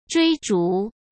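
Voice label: "追逐", Mandarin Chinese; hsk; chinese flashcards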